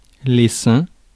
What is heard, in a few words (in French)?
"les seins", francais; Mots francais